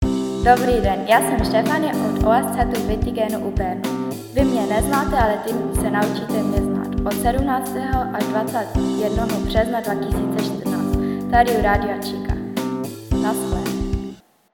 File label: TSCHECHISCH; Schule OSZ Ittigen